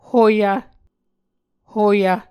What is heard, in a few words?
"hoyv", Muscogee